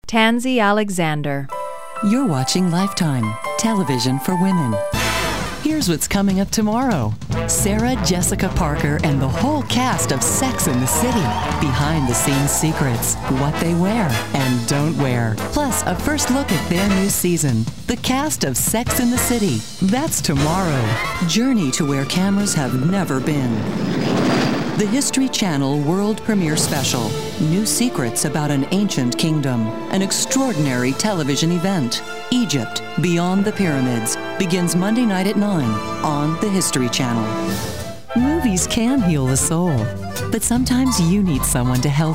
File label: PROMOS